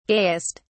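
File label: "gayest", British English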